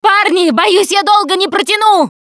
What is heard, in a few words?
ledge hang middle, russian, Survivor Voice Producer